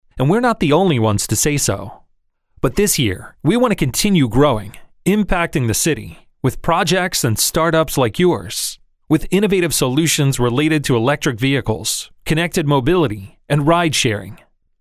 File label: locutor estados unidos american english voiceover; Spanish cartoon dubbing voice over agency